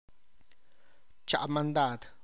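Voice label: I am going on an errand, Tlacochahuaya Zapotec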